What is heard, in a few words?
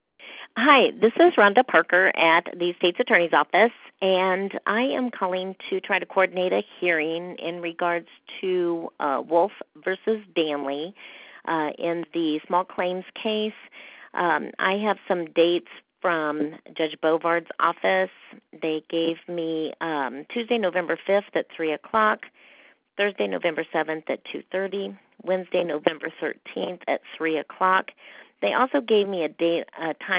voice message